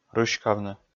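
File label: "рыськавны", Komi, male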